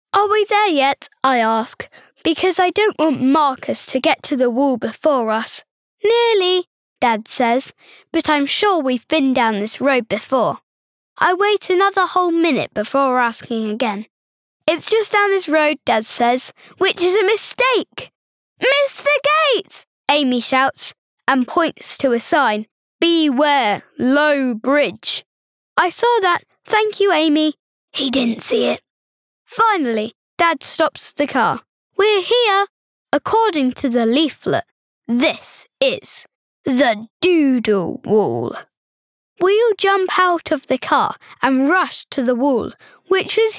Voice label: Narration

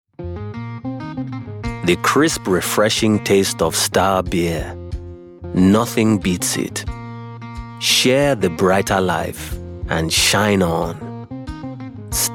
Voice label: Accent

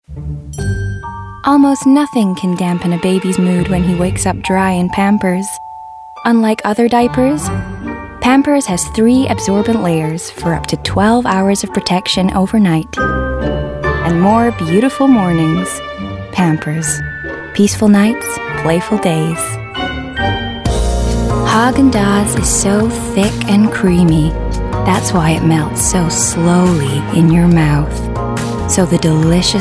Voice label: US Compilation Mix

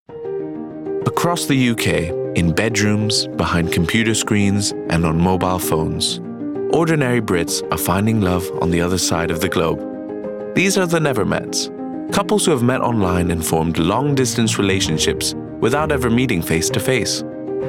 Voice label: Documentary

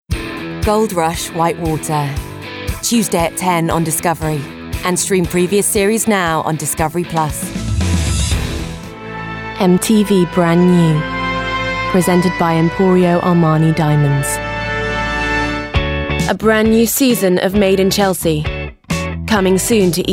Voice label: Promo

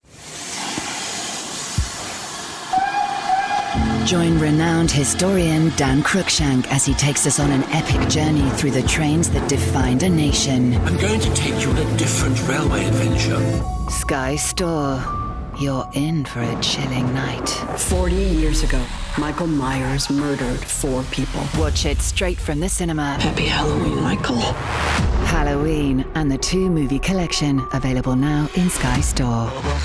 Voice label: Promo

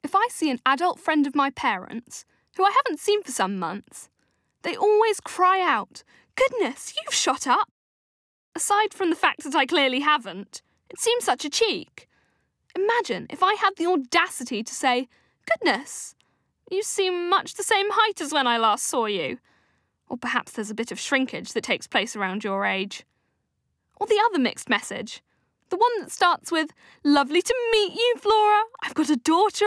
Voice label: Narrative

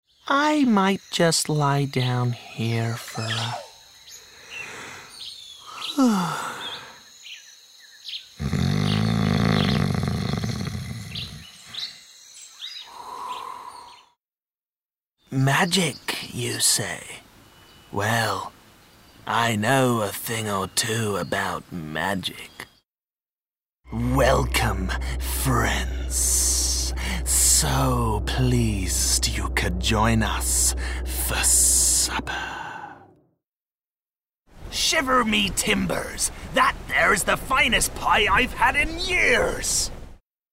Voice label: Animation Reel

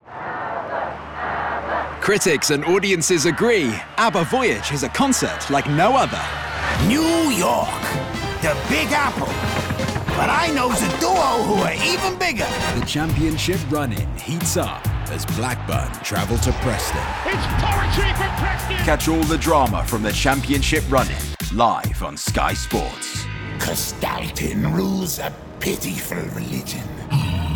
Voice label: Voiceover

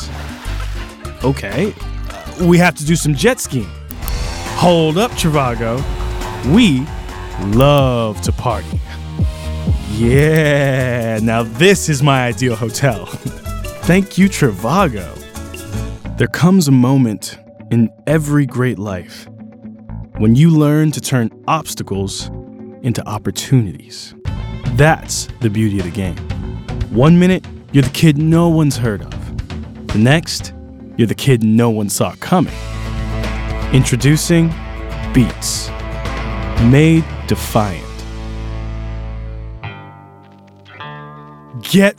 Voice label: Commercial, Californian with a smooth, laid back

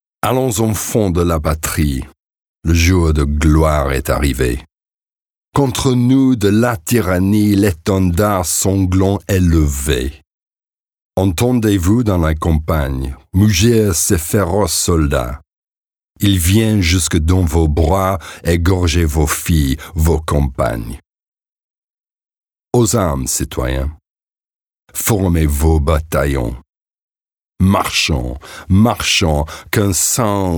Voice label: French Speaking

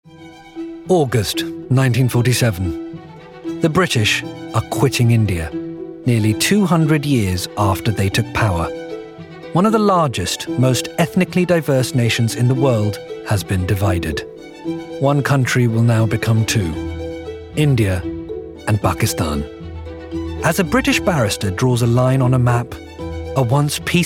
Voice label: Documentary; Netflix's Criminal UK

